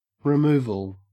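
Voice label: "removal", Australian English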